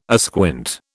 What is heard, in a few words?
"asquint", British